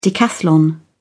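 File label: "decathlon", English, British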